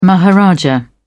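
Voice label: "maharaja", British English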